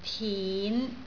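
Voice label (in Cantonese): "tin5", Cantonese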